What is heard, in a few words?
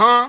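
"huh", vocal sample; Voice; ooh ahh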